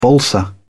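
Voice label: "balsa wood", British English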